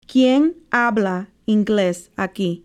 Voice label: quien habla ingles aqui who speaks english here